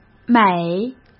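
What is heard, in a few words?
"美", Mandarin Chinese; hsk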